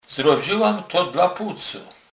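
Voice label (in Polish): "puc", Kurpie dialect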